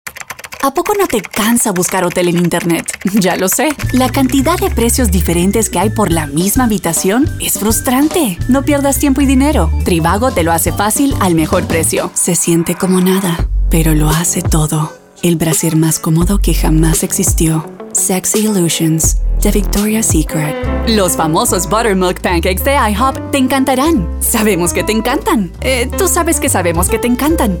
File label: VO Commercial